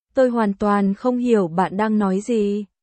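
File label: Vietnamese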